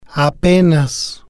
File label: "apenas", spanish